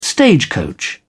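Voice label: "stagecoach", British English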